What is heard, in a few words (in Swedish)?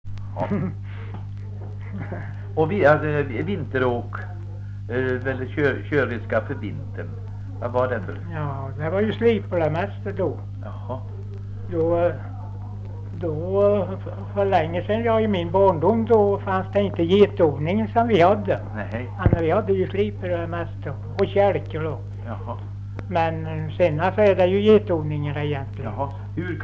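Visnums-Kil Värmland, dialekter; Folkminnen; Svenska sagor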